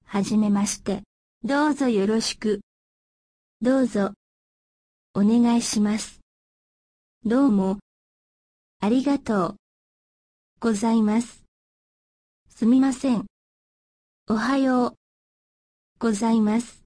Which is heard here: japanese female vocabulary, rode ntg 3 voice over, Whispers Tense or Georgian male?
japanese female vocabulary